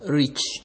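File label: "reach", Nigerian Pidgin, male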